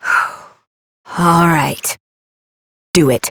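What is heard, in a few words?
Voice, English; New War; Orbiter; Operator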